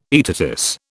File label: "aetatis", British